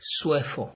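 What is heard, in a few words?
"swear for", Nigerian Pidgin, male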